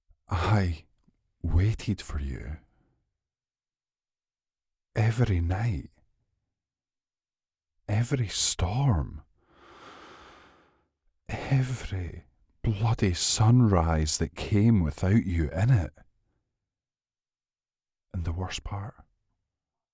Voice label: soft vulnerable